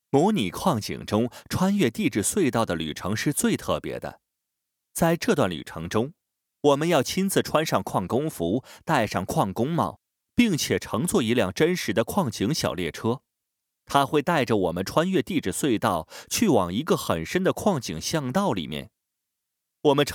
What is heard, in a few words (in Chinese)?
【课件】, 男国语, 磁性, 明亮; 翠鸟